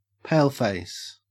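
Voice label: "paleface", Australian English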